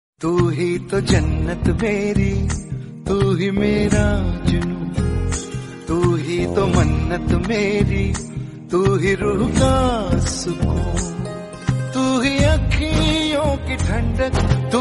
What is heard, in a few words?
Hindi dubbed